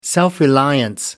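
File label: "self reliance", British English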